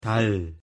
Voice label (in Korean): "달" Korean; pronunciation